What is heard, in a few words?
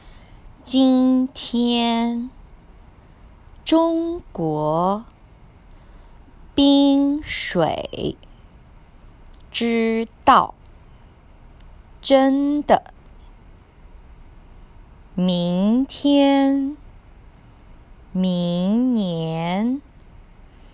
pinyin tone pairs